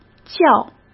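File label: "较", Mandarin Chinese; hsk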